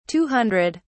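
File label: "two hundred", English, British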